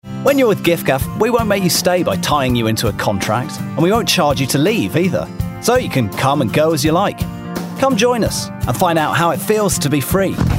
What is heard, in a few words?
Commercial Showreel